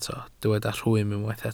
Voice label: "rhwym", Welsh

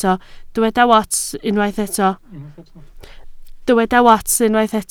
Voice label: "wats", Welsh

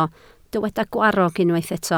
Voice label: "gwarrog", Welsh

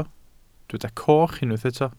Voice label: "coch", Welsh

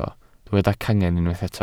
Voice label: "cangen", Welsh

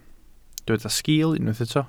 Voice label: "sgiil", Welsh

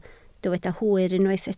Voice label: "hwyr", Welsh

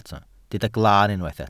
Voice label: "glaan", welsh

